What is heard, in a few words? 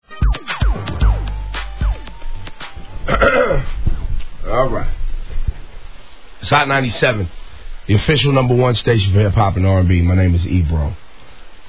announcement; hot97